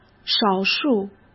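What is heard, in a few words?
"少数", Mandarin Chinese; hsk; chinese-flashcards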